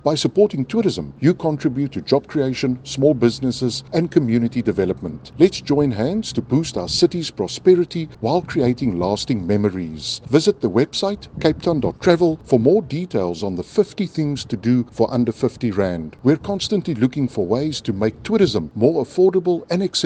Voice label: ENG; newsflash; Jameson Break